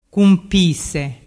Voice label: "cumpise", dialettu